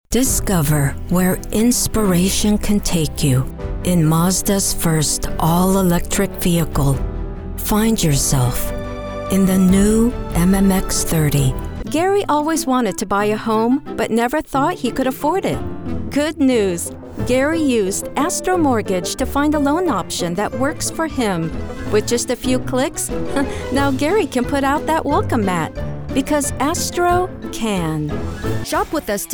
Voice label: Commercials Showreel